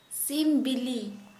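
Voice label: Santali female chicken egg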